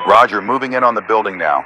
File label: Radio pilot Wingman Engage Strike